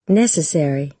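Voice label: "necessary", American English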